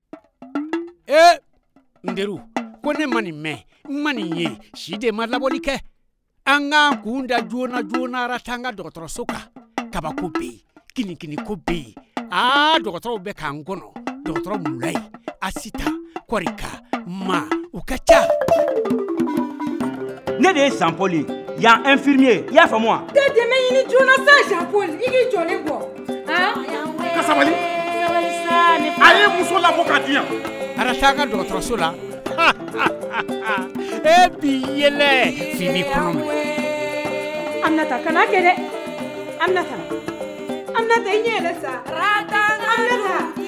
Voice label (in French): bande annonce en bambara feuilleton radio